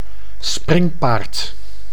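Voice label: "springpaard", Dutch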